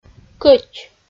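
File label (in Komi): "кӧть", Komi, female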